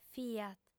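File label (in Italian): "fia", lingua sarda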